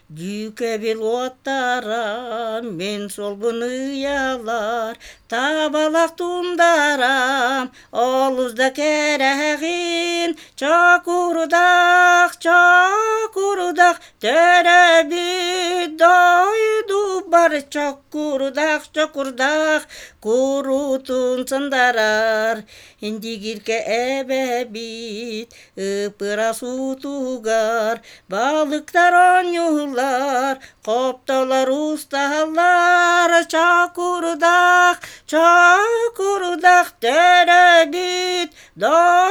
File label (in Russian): Чокурдаах на якутском языке